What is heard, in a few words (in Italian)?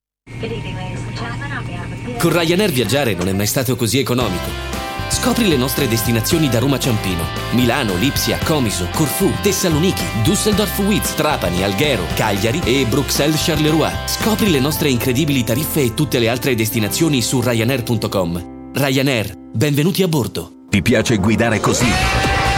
spots